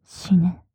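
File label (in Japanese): "死ね" Japanese, 極近, 左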